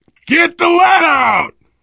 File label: emphatic run, survivor voice